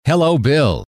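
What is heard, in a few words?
hello, male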